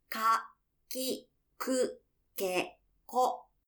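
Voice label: "ka ki ku ke ko", Japanese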